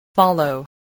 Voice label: "follow", English voice, spelling